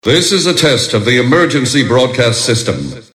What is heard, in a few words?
test of the emergency broadcast system